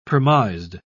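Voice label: "premised", British English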